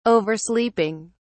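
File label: "oversleeping", English, British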